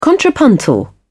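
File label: "contrapuntal", British English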